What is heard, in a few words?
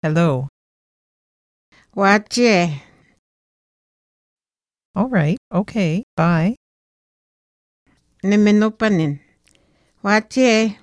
Swampy Cree greetings; Linguistic Atlas